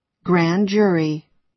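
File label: "grand jury", American English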